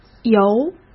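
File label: "由", Mandarin Chinese; chinese-flashcards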